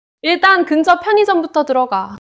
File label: neutral